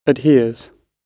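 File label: "adheres", British English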